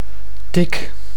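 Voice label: "tic", Dutch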